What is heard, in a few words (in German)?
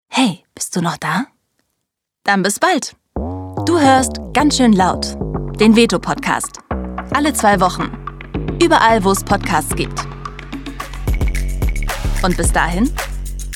Podcast Outro; LOFT VOICES